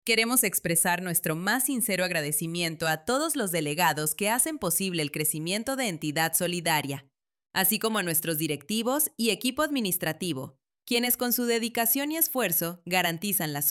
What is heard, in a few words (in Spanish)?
femenino